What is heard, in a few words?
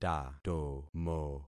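"tatomo", concatenated syllables; Affixing experiment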